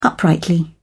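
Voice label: "uprightly", British English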